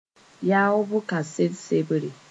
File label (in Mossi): "yaoob kaset sebre", moore